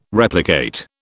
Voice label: "replicate", British